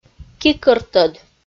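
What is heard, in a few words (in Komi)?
"ки кӧртӧд", Komi, female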